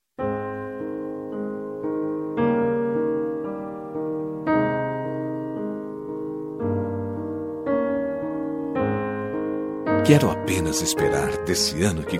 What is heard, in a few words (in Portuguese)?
Feliz Ano Novo Romantico